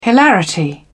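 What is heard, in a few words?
"hilarity", British English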